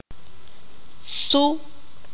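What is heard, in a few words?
"chirimía", Q'anjob'al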